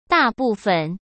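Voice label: "大部分", Mandarin Chinese; hsk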